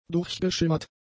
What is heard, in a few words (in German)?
"durchgeschimmert", German; adjectives